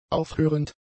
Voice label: "aufhörend", German; adjectives